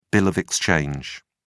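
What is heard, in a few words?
"bill of exchange", English, uk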